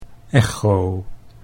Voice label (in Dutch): "echo", Dutch